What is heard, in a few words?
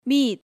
"mit", Korean